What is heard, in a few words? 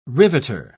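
"riveter", British English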